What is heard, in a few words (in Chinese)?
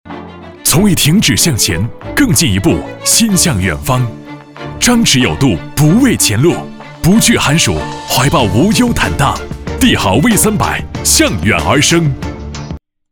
男 国 大音 汽车; 吉利帝豪EV300